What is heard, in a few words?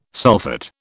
"sulphate", British